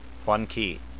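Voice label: "wonki", spoken word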